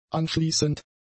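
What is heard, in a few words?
"anschließend", German; adjectives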